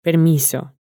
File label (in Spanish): "permiso", Spanish